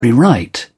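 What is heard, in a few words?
"rewrite", British English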